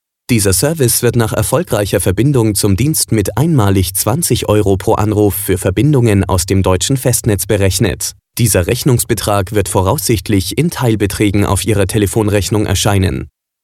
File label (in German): keine Musik tarifansage